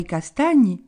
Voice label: "i castagni", Gravona (sud)

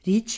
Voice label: "dici", centre et sud; il/elle dit

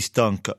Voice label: "stanca", Rocca (sud); fatiguée

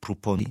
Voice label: "pruponi", Rocca (sud); il/elle propose

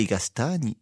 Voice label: "i castagni", Rocca (sud)

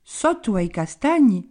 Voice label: "sottu à i castagni", Gravona (sud)

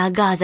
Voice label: "casa", Boziu (nord)

